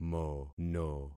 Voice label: "mono", concat syllables; Affixing experiment